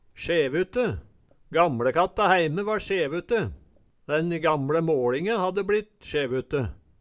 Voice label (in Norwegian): "sjevute", Numedalsmål; Ordet er ubøyeleg.